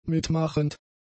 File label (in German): "mitmachend", German; adjectives